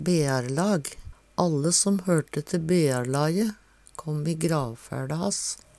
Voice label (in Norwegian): "bearlag", Numedalsmål; Gardar som har grense mot kvarandre høyrer til same "bearlaget".